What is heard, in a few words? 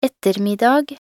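"ettermiddag", Norwegian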